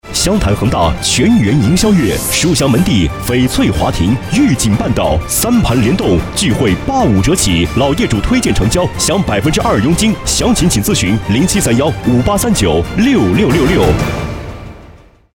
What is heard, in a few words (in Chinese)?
房产广告配音; 房产广告配音恒大